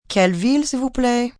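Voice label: What City French, Telephone operator, French, female; VoiceBox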